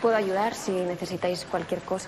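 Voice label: Voice Acting es Female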